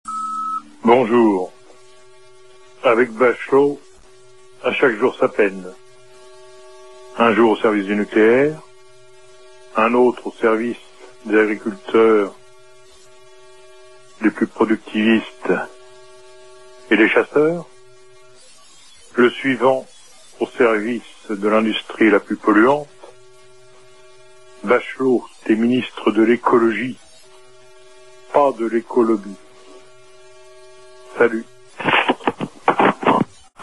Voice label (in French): repondeur; Bachelot